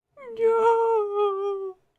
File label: Female Reactions